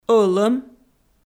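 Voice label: "ӧлӧм", Komi, female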